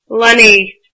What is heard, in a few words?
"children", irish